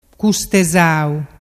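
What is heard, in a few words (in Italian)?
"custezau", Vernazzese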